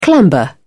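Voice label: "clamber", British English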